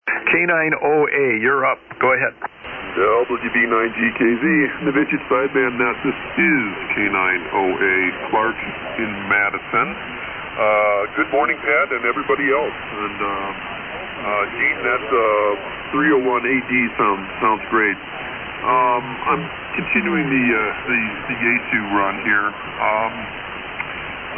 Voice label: Yaesu FT-101B & Turner +3 Mic; Madison,Wi.

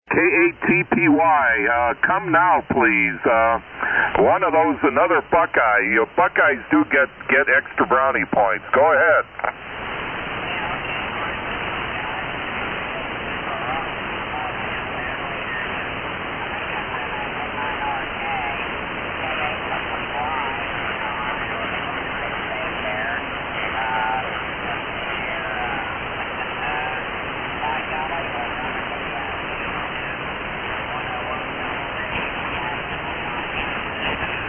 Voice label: Yaesu FT-101EE; Columbus, OH.

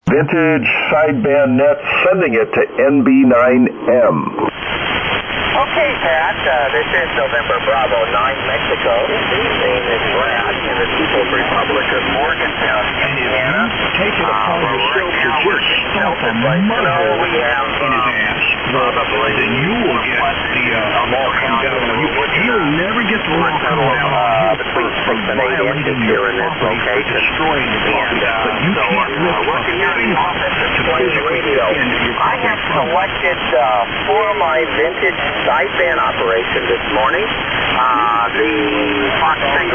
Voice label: Yaesu FT-101ZD; Morgantown, IN

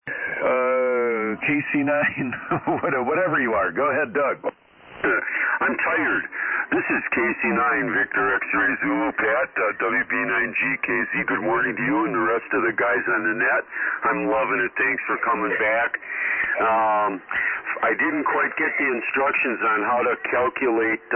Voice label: Yaesu FT-101ZD & D-104 Mic; Hustisford, Wi.

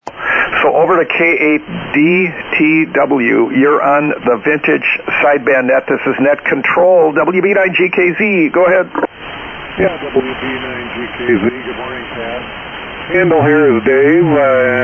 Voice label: Kenwood TS-830S & Heathkit SB-200 Amp; Rockford, Mi.

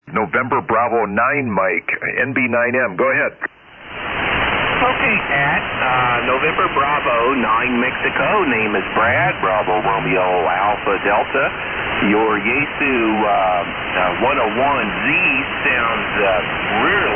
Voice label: Kenwood Twins T-599 R-599 Shure 444 Mic; Morgantown, IN

